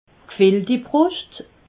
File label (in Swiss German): "gfeltibruscht", alsace